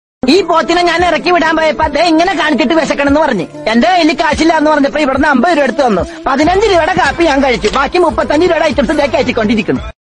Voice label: malayalam comedy dialogue